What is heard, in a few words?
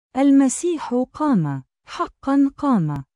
Truly He Is Risen Arabic; Al Maseeh Qam! Haqqan Qam!; THE PASCHAL TROPARION; Great and Holy Pascha